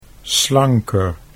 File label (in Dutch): "slanker", Dutch